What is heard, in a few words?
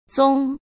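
"宗", Mandarin Chinese; chinese-flashcards; hsk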